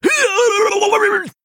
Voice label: Male Murloc Death